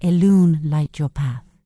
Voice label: Night Elf Female Priestess NPC Farewell